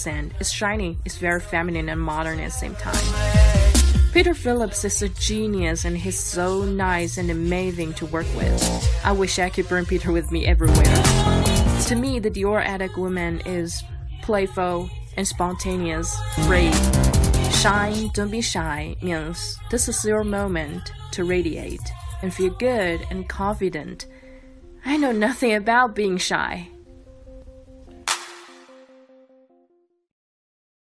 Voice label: 英文广告 访谈类 Lipstick; Dior

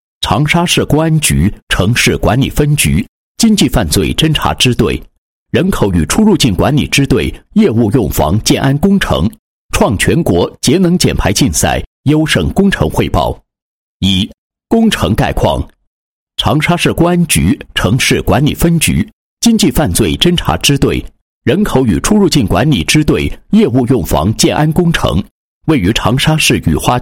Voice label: 男-工程汇报-全国节能减排竞赛优胜工程汇报, 配音案例

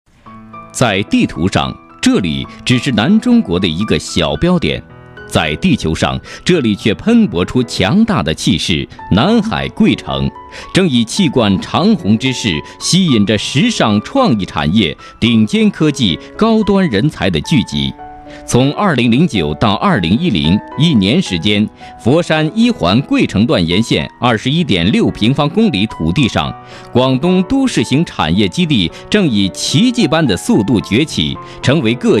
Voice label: 男声 抒情 专题; 南海汽车城; 国语男声配音

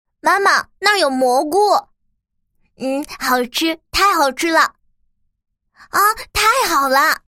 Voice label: 女 对话 男童对话

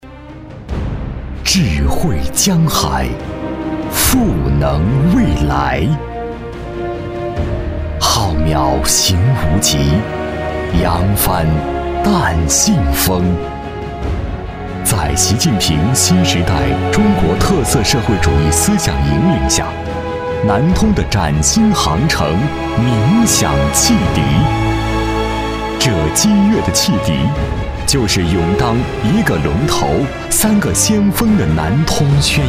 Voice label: 男; 江海

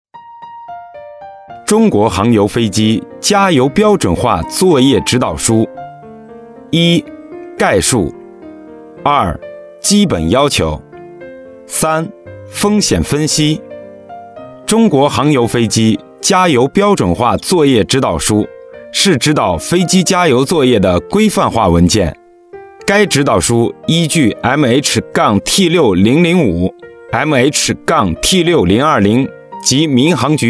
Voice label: 有声读物 指导书

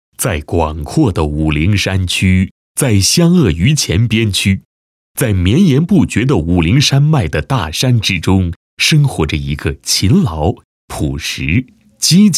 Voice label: 男, 人物; 土家族摆手舞国家级代表性传承人田某

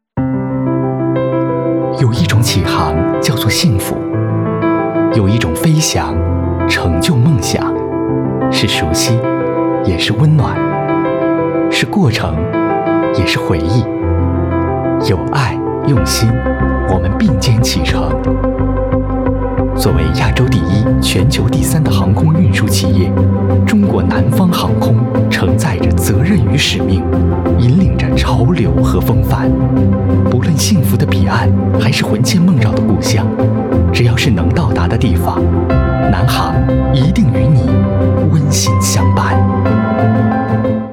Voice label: 广告

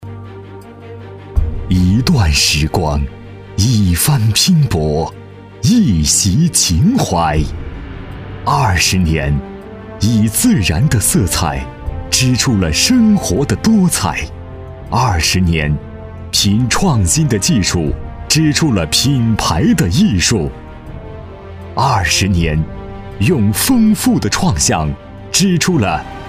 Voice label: 男 大气激情 宣传; 南山宣传

